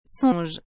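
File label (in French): "songe", French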